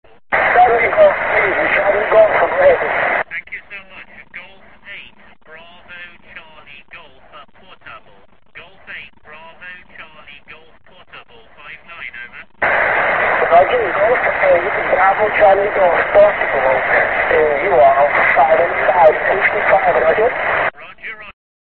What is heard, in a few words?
qso